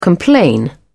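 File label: "complain", British English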